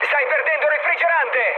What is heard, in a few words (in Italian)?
damaged water, mood high, speech it; War Thunder